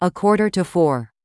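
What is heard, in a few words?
"a quarter to four", English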